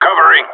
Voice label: covering, radio; GSG9

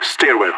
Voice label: stairwell, radio; LEET

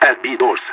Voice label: b doors, radio; LEET

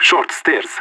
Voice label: short stairs, radio; LEET

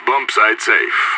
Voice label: bombsite clear, radio; IDF

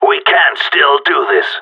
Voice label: peptalk, radio; GSG9

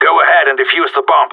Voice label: hurry bomb, radio; GSG9

